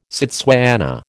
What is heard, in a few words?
"Setswana", American